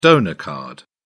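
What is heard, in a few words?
"donor card", British English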